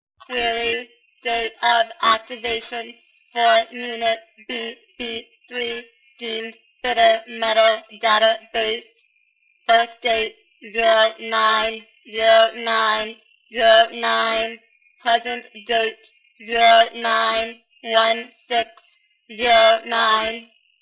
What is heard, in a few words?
robotic voice; Bitter Metal; Vixens of Virtue, Vixens of Vice